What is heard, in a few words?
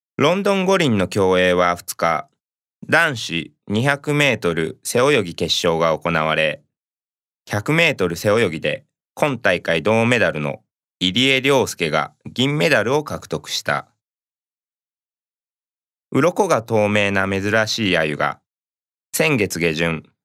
japanese voiceover locutor japones